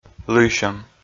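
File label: "лыйсьӧм", Komi, male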